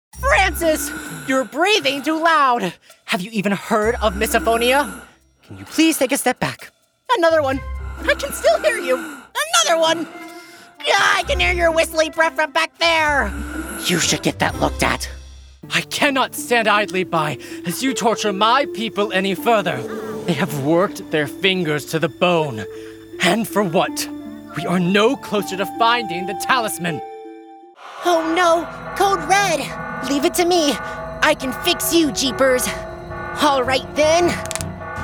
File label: Animation